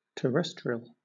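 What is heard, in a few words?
"terrestrial", British; pronunciations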